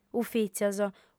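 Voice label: "ufìtzios", Sardinian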